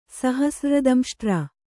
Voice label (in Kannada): "ಸಹಸ್ರ್ರದಂಷ್ಟ್ರ", kannada